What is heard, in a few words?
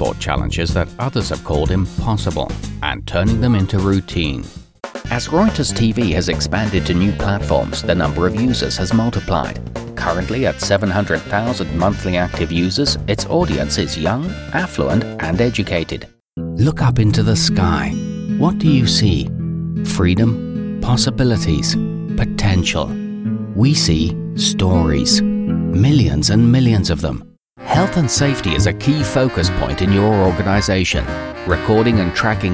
Industrials Voice-over Stereo